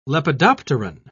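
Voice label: "lepidopteron", British English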